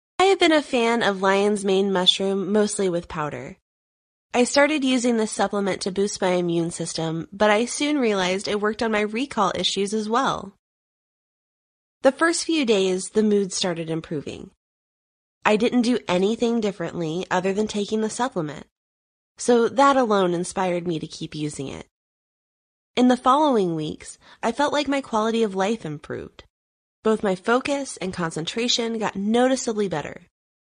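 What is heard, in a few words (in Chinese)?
外籍女英_外语_美式英语_样音_年轻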